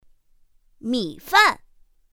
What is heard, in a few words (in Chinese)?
"mǐfàn", pinyin; pinyin2; pinyinji